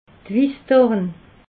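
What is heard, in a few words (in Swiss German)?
"wissdorn", Alsatian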